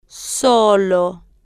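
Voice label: "solo", Spanish, female, slow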